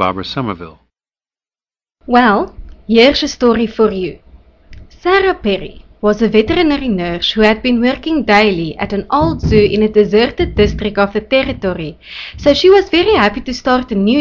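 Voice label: south africa, dialects of English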